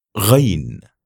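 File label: "ghain", Arabic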